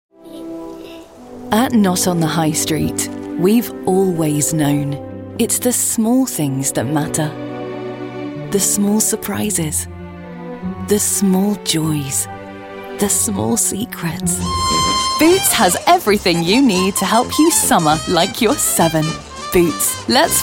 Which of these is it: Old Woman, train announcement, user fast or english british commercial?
english british commercial